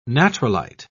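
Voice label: "natrolite", British English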